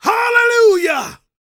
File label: preachin, gospel preacher